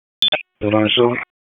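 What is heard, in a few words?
Sheriff's Office Dispatch; WSO DISP 1; wake; p25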